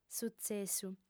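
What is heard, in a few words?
"sutzessu", Sardinian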